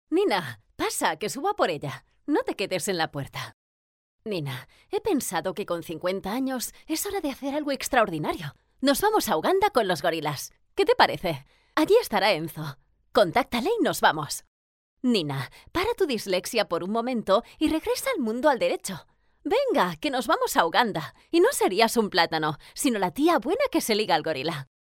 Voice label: spanish audiobooks